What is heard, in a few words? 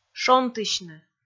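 "шонтысьны", Komi, female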